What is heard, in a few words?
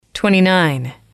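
"twenty nine", English, female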